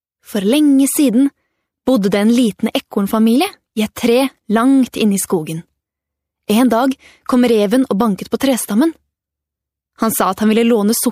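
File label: norwegian female narration, voice demos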